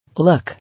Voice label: "Look", English